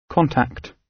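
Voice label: "contact", British English; Language, linguistics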